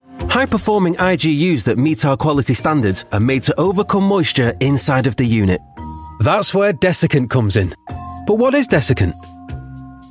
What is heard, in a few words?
manchester voice explainer